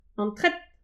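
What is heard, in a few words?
"an tret", Breton; petit precis de prononciation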